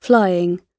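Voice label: "flying", British English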